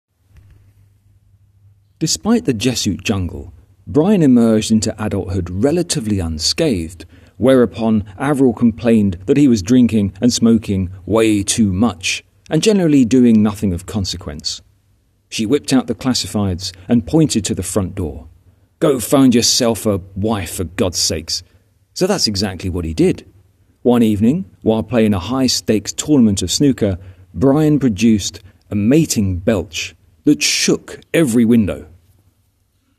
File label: voiceover